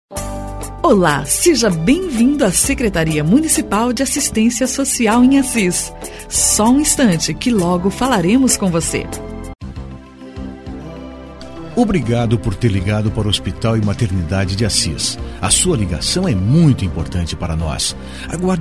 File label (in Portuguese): banco de vozes locutora